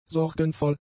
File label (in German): "sorgenvoll", german; adjectives